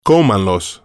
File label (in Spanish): cómanlos, Spanish